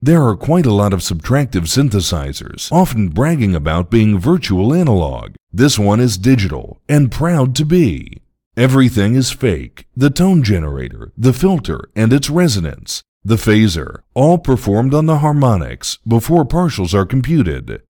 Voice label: Harmor speech - digital; Generators